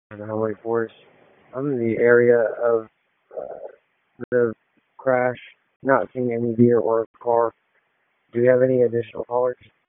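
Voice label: Wake Forest Police Dispatch, p25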